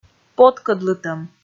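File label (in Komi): "поткӧдлытӧм" Komi, female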